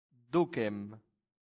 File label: "ducem", latin; santillana